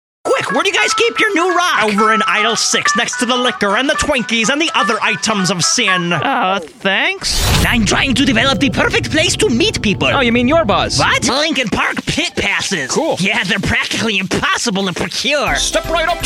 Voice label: Voice Talent Character

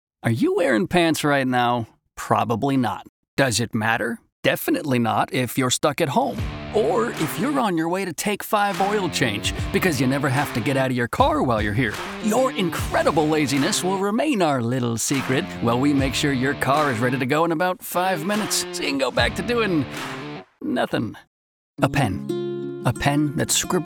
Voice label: Commercial; Commercial Demo

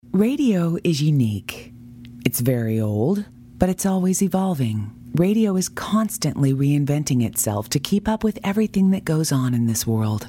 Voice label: Voice Talent Narration

